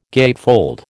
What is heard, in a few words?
"gatefold", American